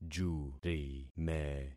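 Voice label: "jurime", concatenated syllables; Affixing experiment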